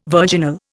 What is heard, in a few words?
"virginal", Indian